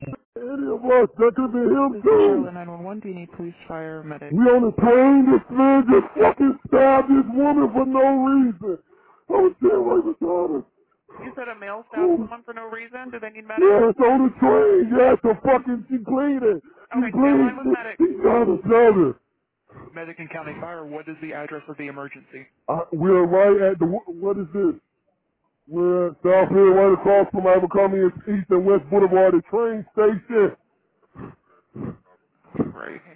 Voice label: Deadly Light Rail Stabbing 911 Call